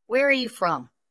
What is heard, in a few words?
VoiceActing es